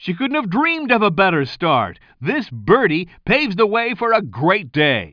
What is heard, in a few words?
commentator